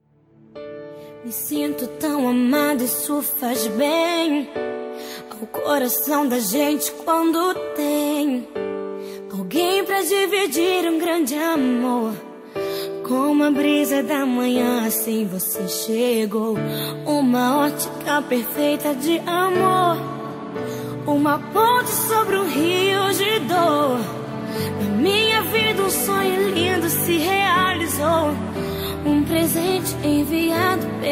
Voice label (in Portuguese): Telemensagem Romântica Gospel – Voz Feminina